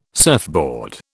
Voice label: "surfboard", British